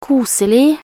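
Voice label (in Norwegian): "koselig", Norwegian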